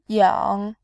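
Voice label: "yang", Mandarin Chinese, tone 3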